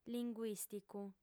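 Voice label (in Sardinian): "linguìsticu", Sardinian, female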